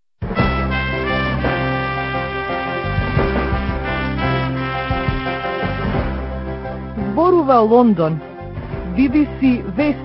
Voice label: bbcws macedonian